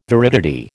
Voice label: "viridity", American